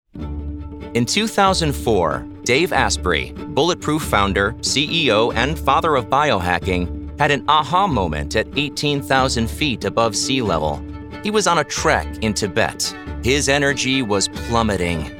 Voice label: Corporate

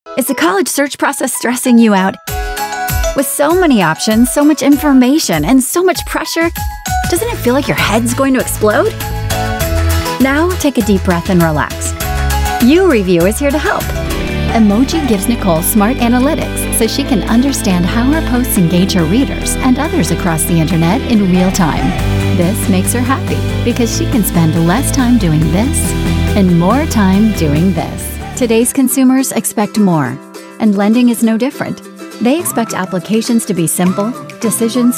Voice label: Explainers

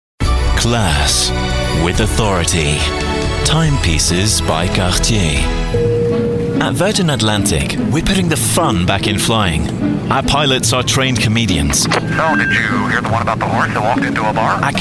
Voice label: Commercials

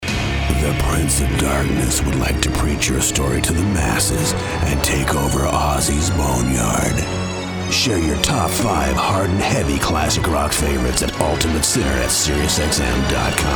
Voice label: Radio Promo; Ozzys Boneyard

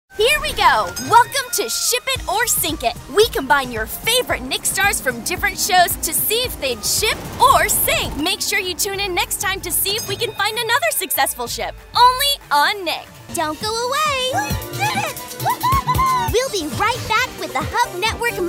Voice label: Promo

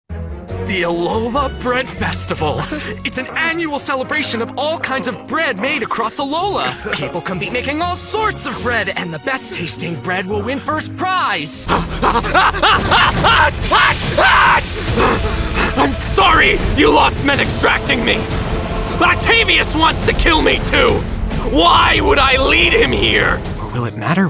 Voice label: Animation

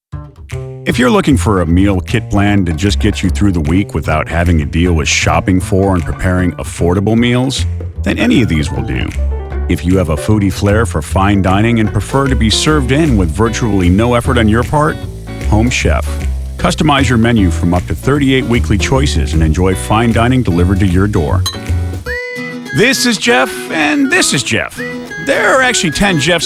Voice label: EXPLAINER

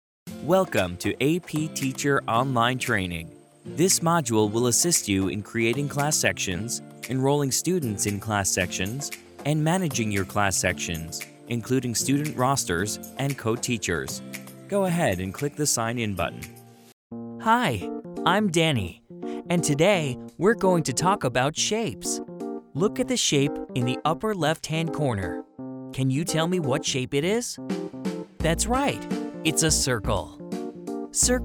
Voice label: e Learning

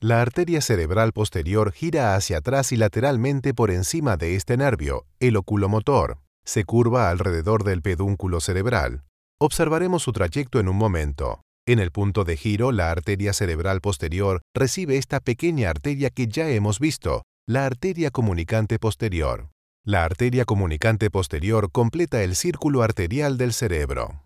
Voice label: medical narration

